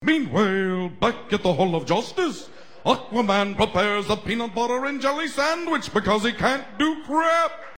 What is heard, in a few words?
super friends announcer